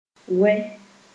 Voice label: "wɛ", Moore

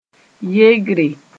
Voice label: "yegre", moore

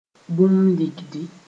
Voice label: "bũmb ligdi", moore

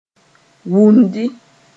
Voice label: "wʋndɩ", moore; skirt

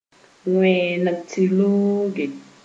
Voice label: "wẽeng tɩ looge", Moore

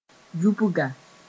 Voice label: "yubga", moore

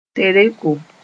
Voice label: "tereko", Moore

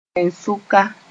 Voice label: "tẽn-sʋka", Moore; in the middle of

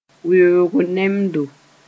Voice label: "weoog nemdo", moore

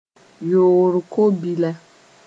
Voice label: "yoor-ko-bila", moore; sperm cell

